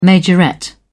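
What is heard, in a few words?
"majorette", British English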